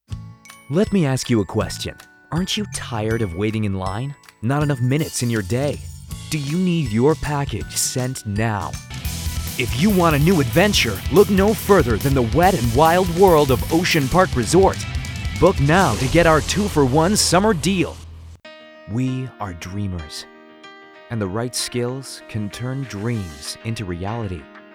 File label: Commercial US